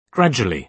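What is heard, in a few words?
"gradually", British English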